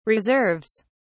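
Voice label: "reserves", British English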